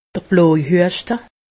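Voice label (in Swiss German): "bloejhueschte", Haut Rhin; medecine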